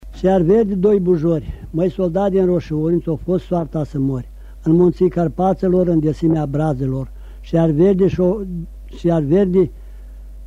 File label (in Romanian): Mai soldat din rosiori recitat; Fonoteca Arhivei de Folclor Vasile Adascalitei - CJCPCT Vaslui; jocuri si cantece batranesti